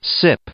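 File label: "sip", English, male; verb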